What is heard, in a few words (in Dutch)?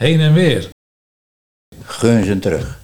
Guns en trug, Nieuw lekkerlands dialect; heen en weer